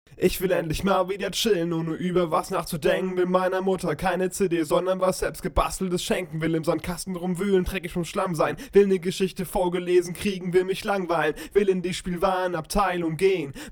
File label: rap; vocalign pro